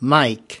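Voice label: "mike", us, male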